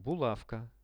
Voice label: "bulavka", Russian; булавка; veshi; Что это? Это булавка.